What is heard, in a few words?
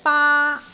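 "baa1", Cantonese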